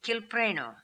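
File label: "Kilpreno", pronunciations; Fourth Way; Beelzebub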